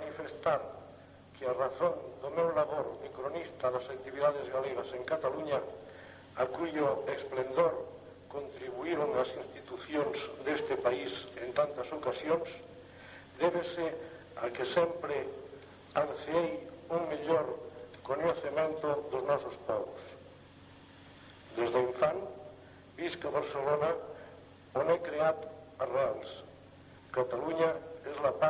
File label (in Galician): presentacion galego e catala; no Palau da Generalitat